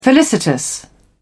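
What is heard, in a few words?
"felicitous", British English, dictionary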